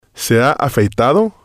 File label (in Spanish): "se ha afeitado", Spanish